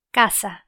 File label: "caza", Spanish